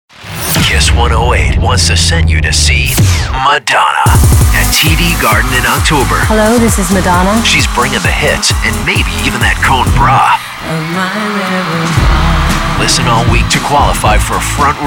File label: radio, radio imaging